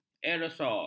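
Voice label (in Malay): "aerosol", Malay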